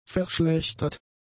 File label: "verschlechtert", German; adjectives